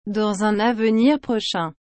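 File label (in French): "dans un avenir prochain", French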